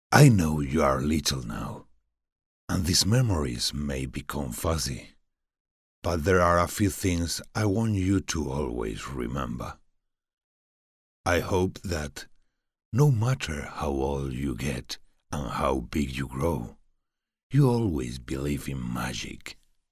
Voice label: male spanish castilian english with spanish accent narration